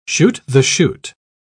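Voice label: "chute-the-chute", British English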